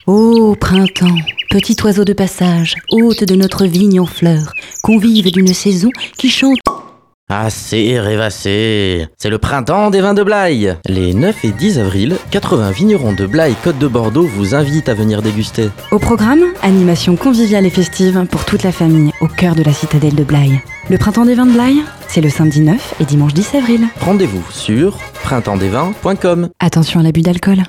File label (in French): spot; NOVA; printemps de blaye